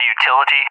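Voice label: "utility", English, USA; Target; DCS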